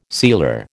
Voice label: "sealer", American